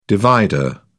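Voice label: "divider", British English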